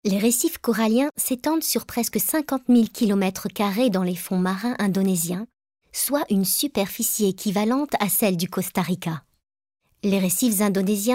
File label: Narration docu; Indonésie